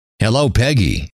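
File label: "hello", male; trainer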